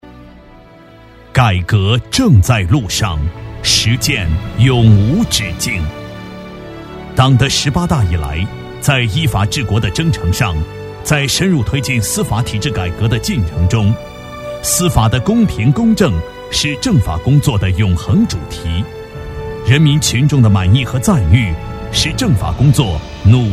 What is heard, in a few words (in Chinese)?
男 宣传片; 峨眉山